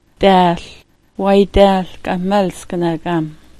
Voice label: "daal", smalgyax